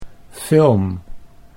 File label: "film", Dutch